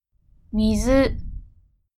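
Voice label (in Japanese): "水", Japanese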